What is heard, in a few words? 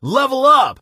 level up, Male; Kenney's Sound Pack; Voiceover